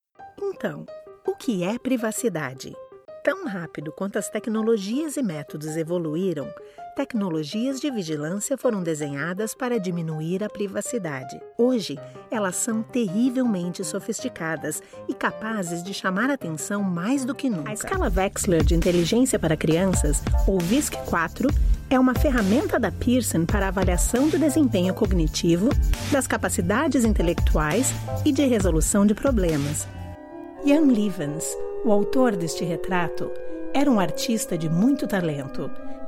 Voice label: Brazilian Portuguese elearning Narration Corporate